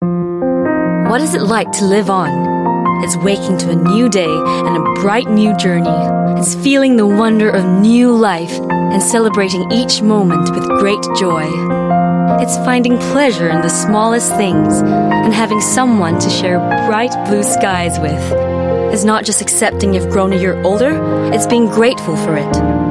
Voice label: Asian English Organ Donation

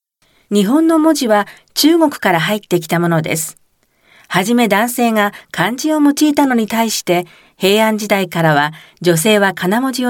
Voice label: Japanese Voice Sample, Japanese Female

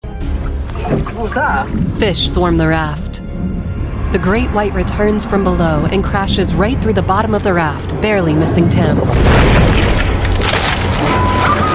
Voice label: US English Audio Description

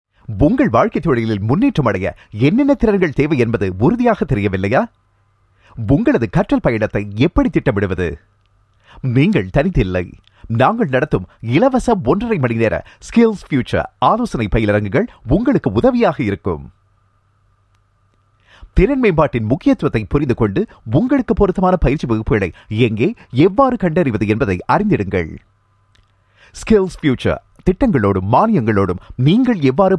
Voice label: Tamil Voice Sample; India Male

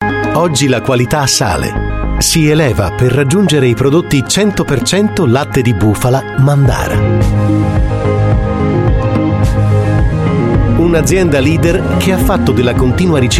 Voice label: Italian

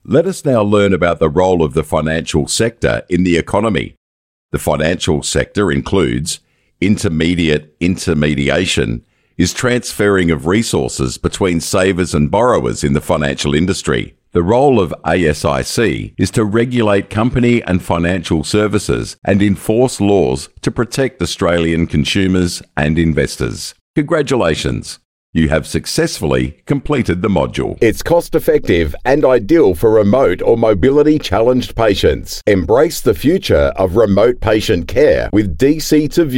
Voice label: AU English Elearning

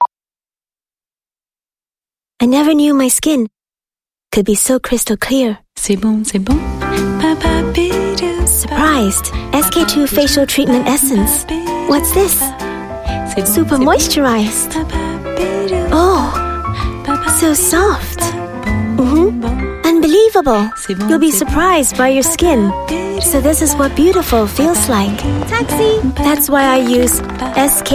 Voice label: Asian English; SKII Ayasa

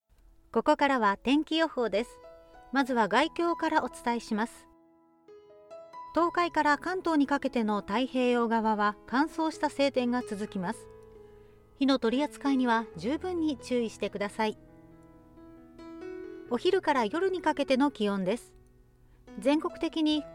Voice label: Japanese Weather Info, Japanese Female

